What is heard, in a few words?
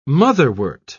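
"motherwort", British English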